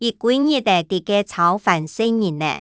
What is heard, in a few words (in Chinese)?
佢歸日仔在該操煩細人仔, 客語